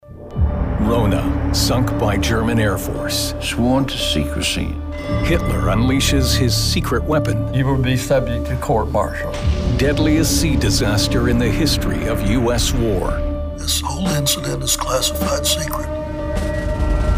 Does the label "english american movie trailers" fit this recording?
Yes